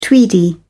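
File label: "tweedy", English, British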